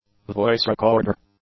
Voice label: "voice-recorder", English; nouns

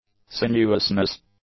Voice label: "sinuousness", english

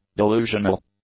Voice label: "delusional", english; adjectives

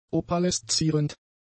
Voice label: "opaleszierend", German; adjectives

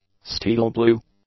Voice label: "steel-blue", English; adjectives

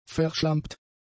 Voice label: "verschlampt", German; adjectives

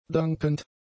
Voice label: "dankend", German; adjectives

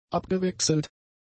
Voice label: "abgewechselt", German; adjectives

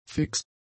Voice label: "fix", German; adjectives

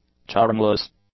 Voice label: "charmless", English; adjectives

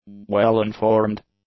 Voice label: "well-informed", English; adjectives

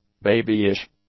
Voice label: "babyish", English; adjectives

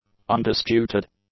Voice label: "undisputed", English; adjectives

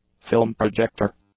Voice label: "film-projector", English; nouns

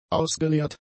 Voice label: "ausgeleert", German; adjectives

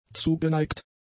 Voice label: "zugeneigt", German; adjectives

